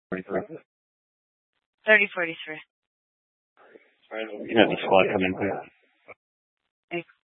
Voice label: Police Dispatch

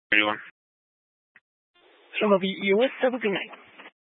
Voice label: Sheriff Dispatch; Mesa County

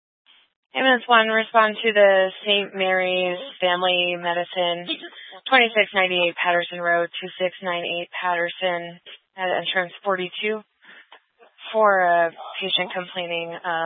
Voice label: Fire Dispatch, scanner

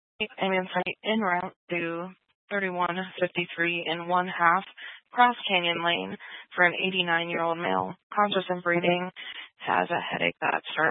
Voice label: Fire Department Dispatch, scanner; Grand Junction

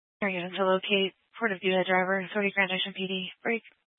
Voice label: Police Department Dispatch; Grand Junction

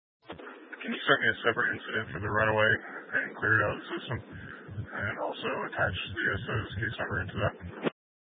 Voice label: Mesa County Sheriff Dispatch

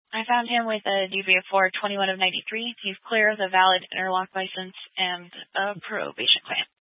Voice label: Police Dispatch; Police 1 Dispatch

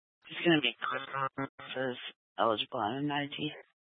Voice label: Incident Tac 3, scanner

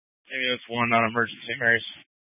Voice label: Fire Dispatch, Scanner audio recording

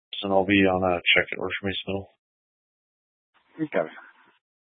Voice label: Police 1 Dispatch

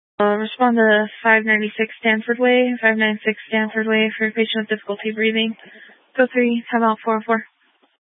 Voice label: Grand Junction Fire Department Dispatch

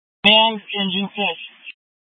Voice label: Incident Tac, scanner; Incident Tac 1

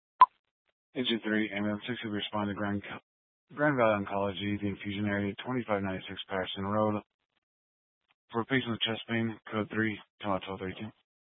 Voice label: Fire Department Dispatch, scanner

